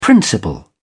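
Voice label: "principal", British English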